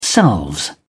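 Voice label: "selves", British English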